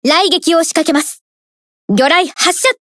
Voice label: Speech Fire Torpedo; Belfast; Azur Lane